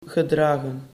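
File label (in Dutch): "gedragen", Dutch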